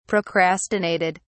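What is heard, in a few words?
"procrastinated", English, British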